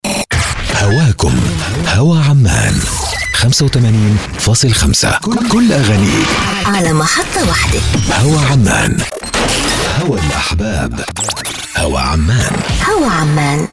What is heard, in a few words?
arabic montage